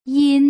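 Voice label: "yin", Mandarin Chinese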